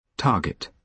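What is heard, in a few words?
"target", British English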